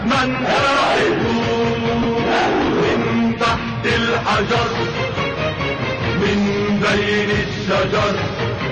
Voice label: Sorani